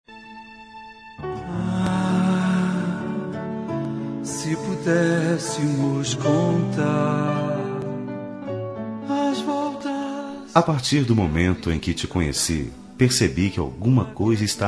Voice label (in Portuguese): Romântica Alma Gêmea